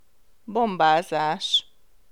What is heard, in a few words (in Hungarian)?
"bombázás", Hungarian